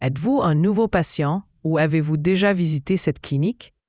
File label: question french